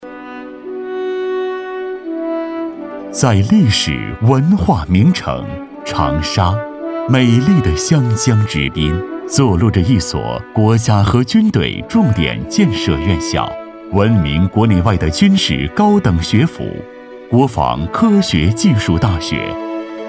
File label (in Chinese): 男 专题 沉稳讲述; 国防大学